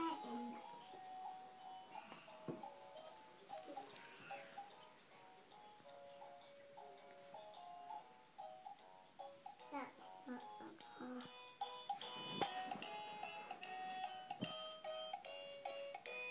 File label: toddler chatter